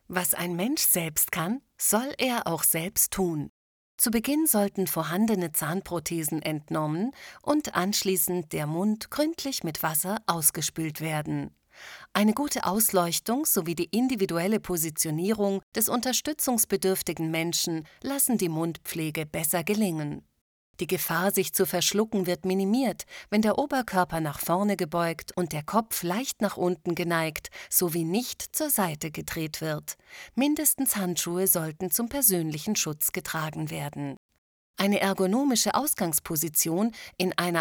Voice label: e learning; Stuttgart; Muttersprache Deutsch